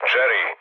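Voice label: Serbian enemy detected mood low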